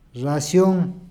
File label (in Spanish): racion, Peru Cuzco